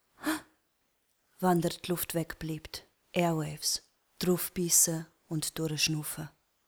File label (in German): Werbung Beispieltext; Schweizer Airwaves